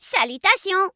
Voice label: gnome, male; salutations; PhoenixCraft-1.16.5; PhoenixNPCPack; minecraft

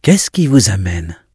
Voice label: bloodelf, male; PhoenixCraft-1.16.5; PhoenixNPCPack; minecraft

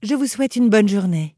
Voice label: human, female; bonne journee; PhoenixCraft-1.16.5; PhoenixNPCPack; minecraft

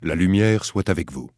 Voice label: human, male; PhoenixCraft-1.16.5; PhoenixNPCPack; minecraft

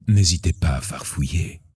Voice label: elf, male; PhoenixCraft-1.16.5; PhoenixNPCPack; minecraft

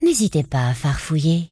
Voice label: elf, male; farfouiller; PhoenixCraft-1.16.5; PhoenixNPCPack; minecraft

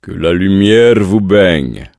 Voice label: draenei, male; lumiere vous baigne; PhoenixCraft-1.16.5; PhoenixNPCPack; minecraft

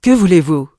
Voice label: bloodelf, female; que voulez vous; PhoenixCraft-1.16.5; PhoenixNPCPack; minecraft

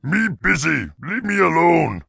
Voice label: leave me alone, peon male; PhoenixCraft-1.16.5; PhoenixNPCPack; minecraft

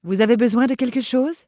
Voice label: human, female; besoin quelque chose; PhoenixCraft-1.16.5; PhoenixNPCPack; minecraft

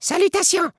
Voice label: gnome, male; salutations; PhoenixCraft-1.16.5; PhoenixNPCPack; minecraft

